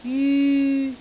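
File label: "jyu3", Cantonese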